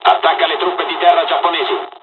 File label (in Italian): takeoff, dialogs italian; War Thunder